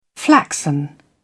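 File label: "flaxen", British English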